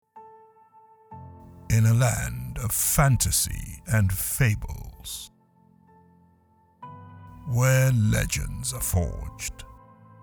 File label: Trailer for an Eagerly Awaited Video Game